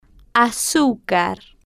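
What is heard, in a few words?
"azucar", Spanish, female, slow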